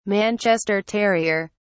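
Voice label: "manchester terrier", British English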